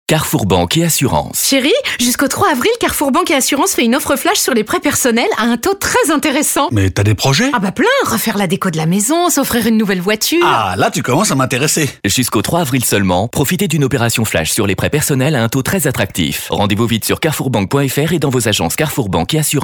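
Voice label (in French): droit rapide, Comédien voix off, publicité; Carrefour Banque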